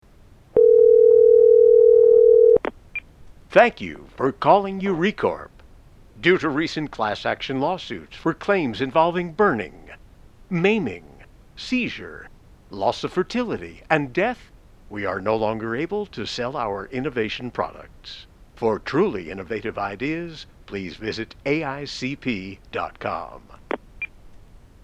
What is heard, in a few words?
phone message